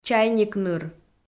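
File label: "чайник ныр", Komi, female; kpv audio dict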